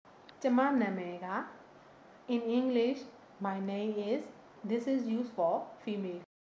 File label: My Name is female